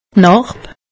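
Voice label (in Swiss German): "narb", Alsatian; medecine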